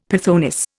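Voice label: "pythoness", Indian English; Dictionary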